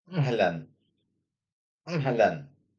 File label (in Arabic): "أهلاً", Arabic; welcome; introductory greetings